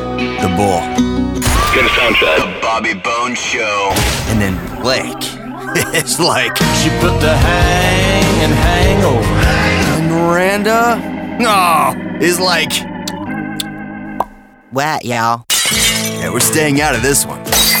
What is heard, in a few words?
radio country; radio imaging